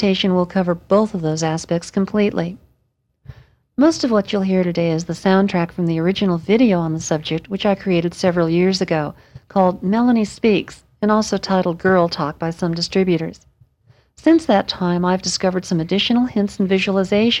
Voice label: transgender voice